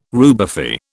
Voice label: "rubefy", British

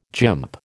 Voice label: "gymp", American

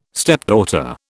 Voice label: "stepdaughter", British

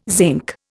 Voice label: "zinc", Indian

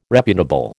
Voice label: "reputable", American

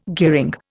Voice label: "gearing", Indian

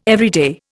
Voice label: "everyday", Indian

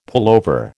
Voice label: "pullover", American

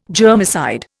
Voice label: "germicide", Indian

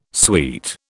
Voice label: "sweet", British

